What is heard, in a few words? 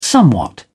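"somewhat", British English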